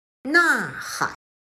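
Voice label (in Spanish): "呐喊", chino mandarin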